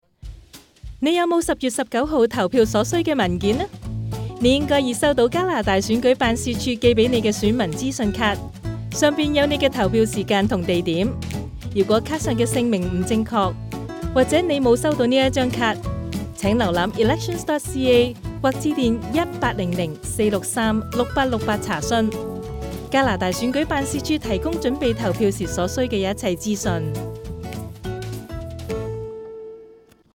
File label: Cantonese